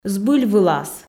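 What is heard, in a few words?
"збыльвылас", Komi, female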